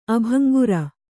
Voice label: "ಅಭಂಗುರ", Kannada

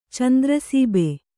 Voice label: "ಚಂದ್ರಸೀಬೆ", Kannada

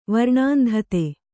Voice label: "ವರ್ಣಾಂಧತೆ", Kannada

